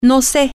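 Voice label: I dont know, Spanish, female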